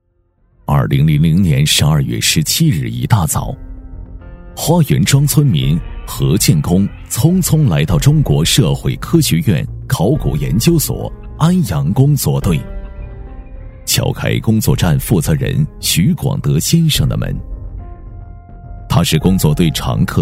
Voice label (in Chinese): 男 低沉纪录 纪实旁白讲解